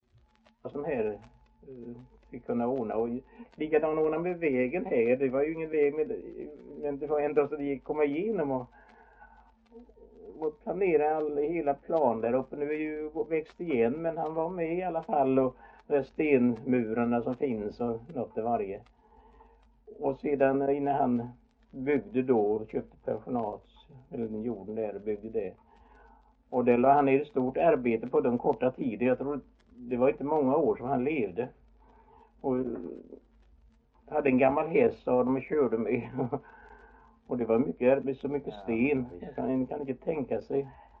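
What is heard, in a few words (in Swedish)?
Idala Halland, dialekter; Folkminnen; Svenska sagor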